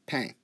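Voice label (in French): "pain", French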